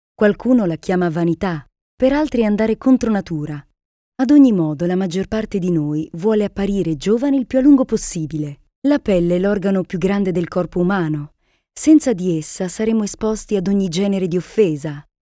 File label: Italian Female